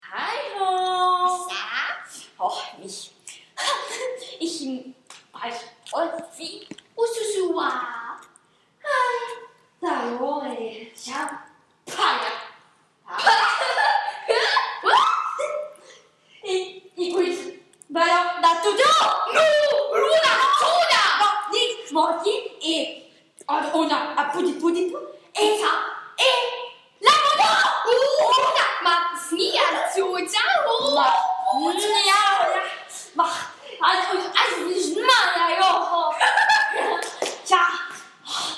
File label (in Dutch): jabber vrolijk gesprek